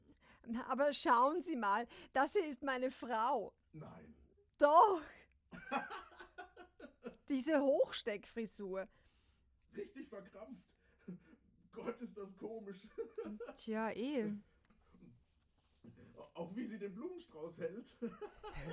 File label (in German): Hörspiel; Das Fotoalbum